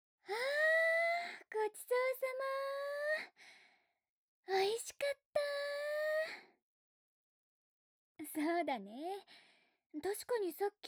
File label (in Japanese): お姉さんがお酌してあげる